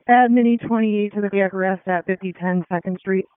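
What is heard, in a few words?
Fire Department HQ Dispatch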